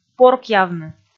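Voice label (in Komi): "поркъявны", Komi, female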